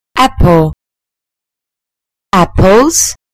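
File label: "apple", British English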